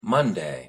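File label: "monday", English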